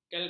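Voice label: "kelkah", Malay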